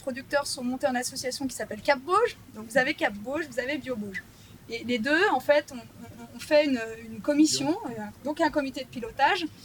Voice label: Interview clim denoise